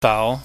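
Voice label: "fau", Cantonese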